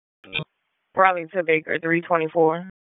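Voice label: Police Northeast District Disp, radio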